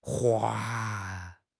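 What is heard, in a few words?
"hua4", Mandarin Chinese; HSK3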